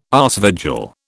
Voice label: "aasvogel", British